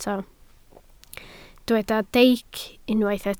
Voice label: "deic", Welsh